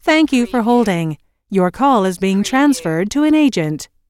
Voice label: US female phone prompt Thank you for holding - Your call is being transferred to an agent; lynne publishing